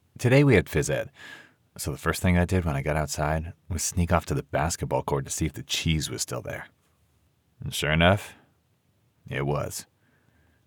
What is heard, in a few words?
Audiobook Middle Grade 1st Person Fiction Humor